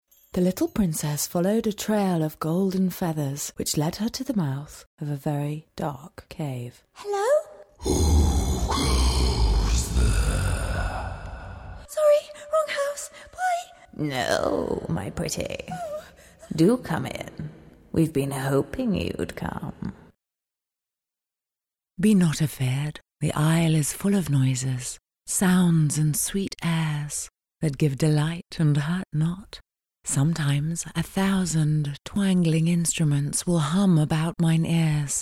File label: AUDIOBOOK